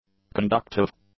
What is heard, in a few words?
"conductive", English; adjectives